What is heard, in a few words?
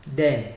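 "den", Nigerian Pidgin, male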